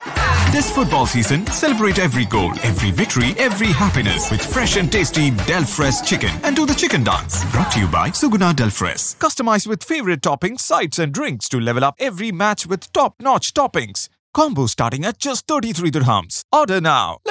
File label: English Call out; German Agency